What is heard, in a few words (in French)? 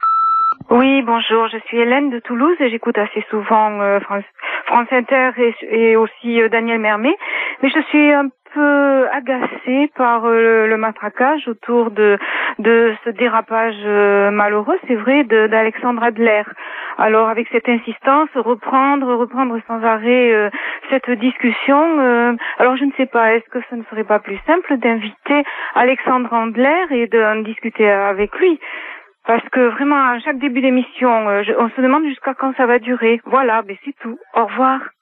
répondeur; Derapage Alexandre Adler